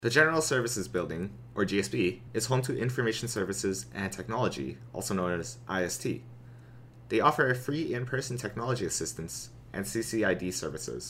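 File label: General Services Building - English; NSO Tour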